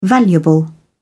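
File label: "valuable", British English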